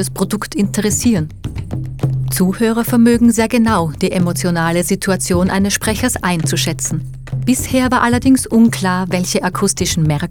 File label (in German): DOKU Laecheln der Stimme; Sprecherdatenbank